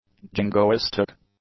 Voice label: "jingoistic", english; adjectives

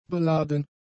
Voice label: "beladen", German; adjectives

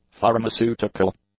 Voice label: "pharmaceutical", english; adjectives

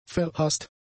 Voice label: "verhasst", German; adjectives

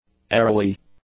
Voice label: "airily", English; adjectives

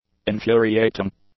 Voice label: "infuriating", English; adjectives

